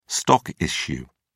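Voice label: "stock issue", English, British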